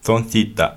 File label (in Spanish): Servilleta, Mixteco